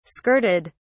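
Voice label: "skirted", British English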